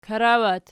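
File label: "karawat" nominative, Archi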